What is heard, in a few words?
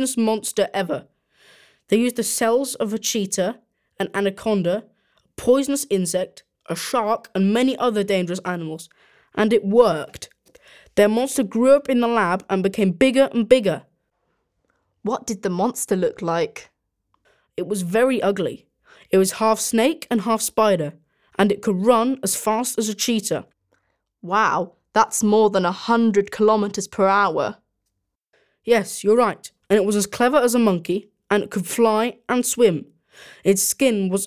Brian is talking about a horror film